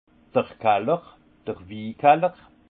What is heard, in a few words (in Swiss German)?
"kaller", alsace; vin; cave